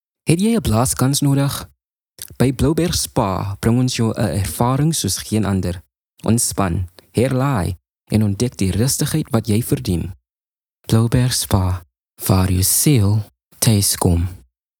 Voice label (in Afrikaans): Afrikaans; Blouberg Spa